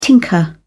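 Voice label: "tinker", British English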